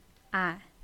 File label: "chicken", naxi